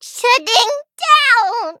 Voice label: hit, en; cookie run

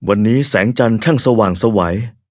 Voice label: level up, th; cookierun

